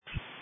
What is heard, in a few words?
Sheriff Dispatch